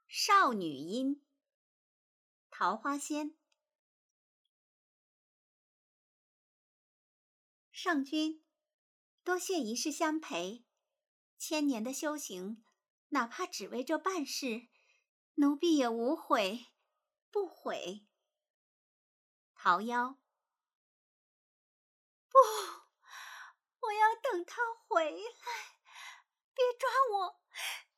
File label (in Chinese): 少女音